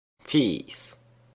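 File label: "Fiiss", Luxembourgish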